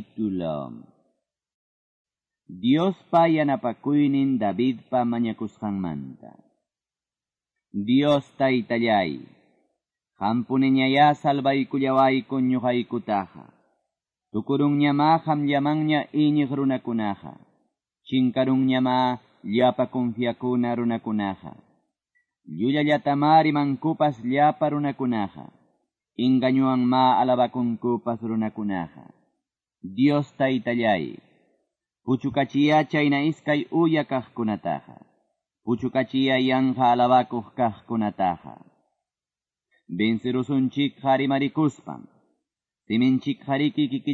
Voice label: salmos, quechua ayacucho